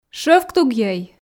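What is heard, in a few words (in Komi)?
"шӧвктугйӧй", Komi, female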